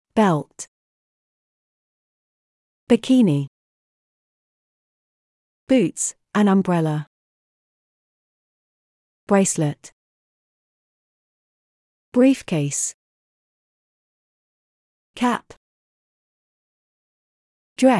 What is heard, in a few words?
uk match wordbank clothes